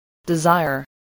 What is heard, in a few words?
"desire", English